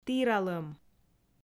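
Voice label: "тіралӧм", Komi, female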